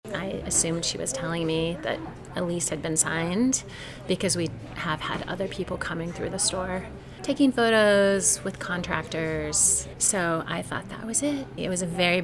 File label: phone call; aggieville's dusty bookshelf